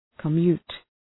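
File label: "commute", English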